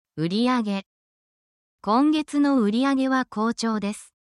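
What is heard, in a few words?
Japanese word, essential Japanese phrases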